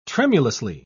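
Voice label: "tremulously", British English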